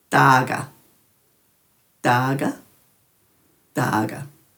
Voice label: "dhaaga", Dhurga